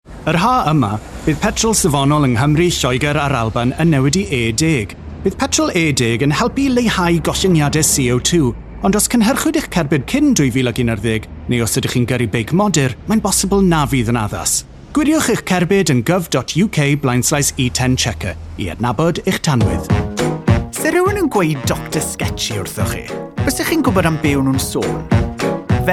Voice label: Welsh Language; Welsh, Male, 20s-30s